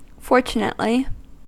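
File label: "fortunately", American English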